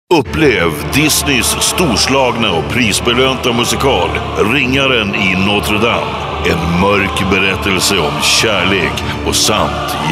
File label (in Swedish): radiospot